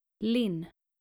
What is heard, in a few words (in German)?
"linn", skandinavische maedchennamen